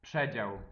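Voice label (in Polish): "przedzial", Polish; multimedia glossaries; transport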